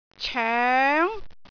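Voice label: "coeng2", Cantonese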